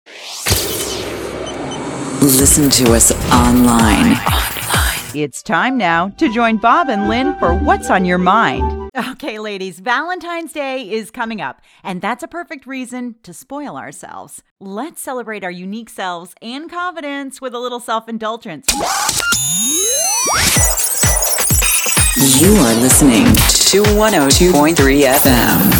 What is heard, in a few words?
Promos Imaging Liners